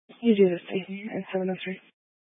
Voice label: Sheriff Dispatch, scanner